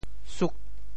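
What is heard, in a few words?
"suk8", Teochew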